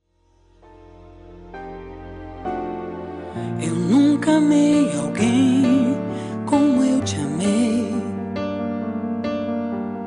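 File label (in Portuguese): DIA DOS NAMORADOS PARA EX male, telemensagem; DIA DOS NAMORADOS PARA EX MASC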